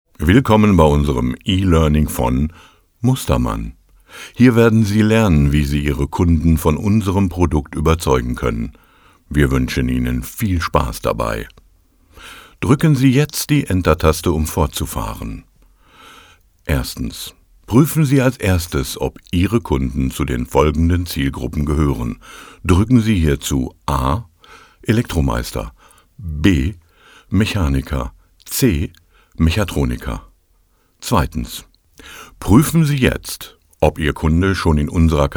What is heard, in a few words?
elearning deutsch; sprecher